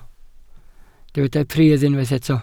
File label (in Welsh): "pridd" Welsh